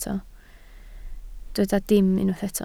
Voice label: "dim", Welsh